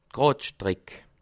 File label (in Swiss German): "streck", Alsace